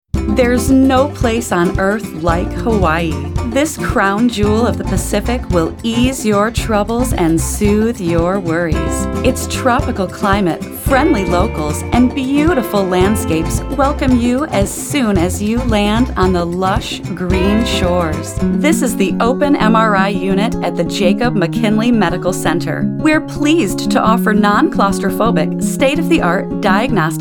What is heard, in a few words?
narrative